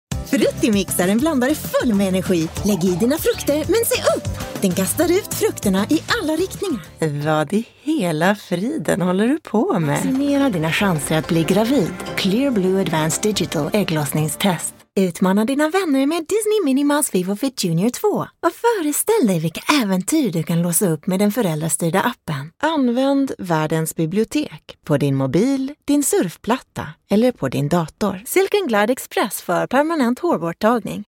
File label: swedish commercial, Swedish Female Voiceover